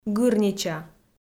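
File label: "гырнича", Komi, female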